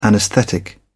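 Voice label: "anaesthetic", British English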